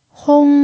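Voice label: "hong" first tone, pinyin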